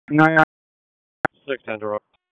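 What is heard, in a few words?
Police Dispatch, p25; Raleigh; Southwest District; wake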